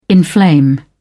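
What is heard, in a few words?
"inflame", British English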